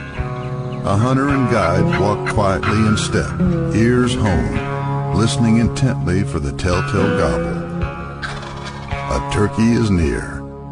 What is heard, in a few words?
SOUTHERN VO